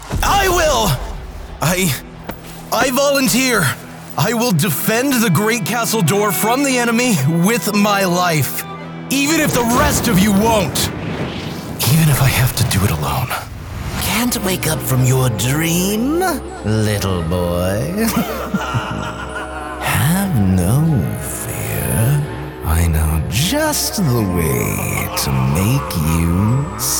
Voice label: Character

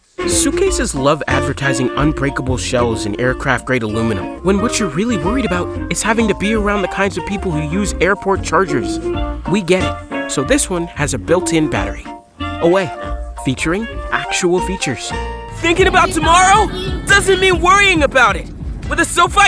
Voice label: Commercial

